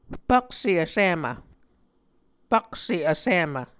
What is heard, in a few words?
"pakse vsimv", Muscogee